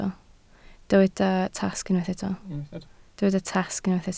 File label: "tasg", Welsh